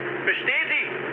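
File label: German player killed enemy mood high, speech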